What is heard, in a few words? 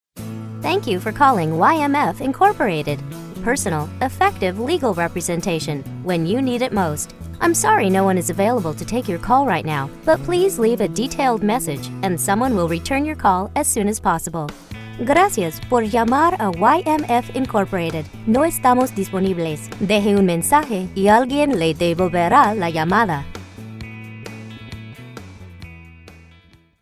voicemail greeting; TELEPHONE MESSAGE